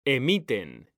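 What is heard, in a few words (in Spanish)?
"emiten", Spanish; mekanta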